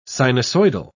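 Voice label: "sinusoidal", British English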